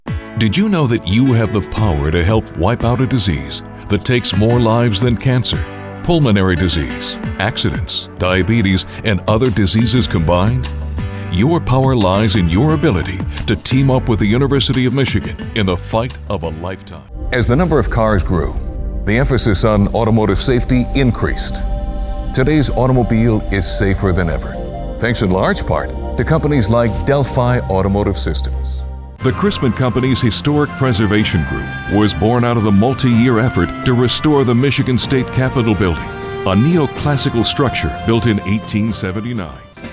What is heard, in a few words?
Narration